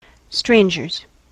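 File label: "strangers", US English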